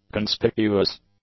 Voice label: "conspicuous", English; adjectives

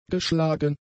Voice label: "geschlagen", German; adjectives

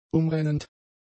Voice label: "umrennend", German; adjectives

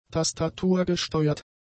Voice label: "tastaturgesteuert", German; adjectives

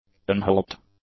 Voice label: "unhelped", english; adjectives

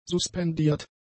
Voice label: "suspendiert", German; adjectives

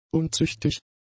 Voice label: "unzüchtig", German; adjectives

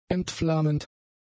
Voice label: "entflammend", German; adjectives

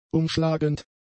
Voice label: "umschlagend", German; adjectives

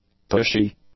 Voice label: "pushy", english; adjectives

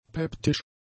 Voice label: "peptisch", German; adjectives

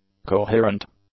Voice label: "coherent", English; adjectives

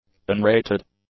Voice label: "unrated", english; adjectives

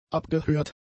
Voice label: "abgehört", German; adjectives

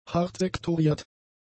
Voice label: "hartsektoriert", German; adjectives

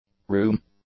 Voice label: "room", English; nouns

